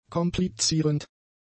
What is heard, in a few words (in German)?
"komplizierend", German; adjectives